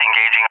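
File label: "engaging" English, speech; DCS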